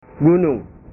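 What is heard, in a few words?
"gunung", Indonesian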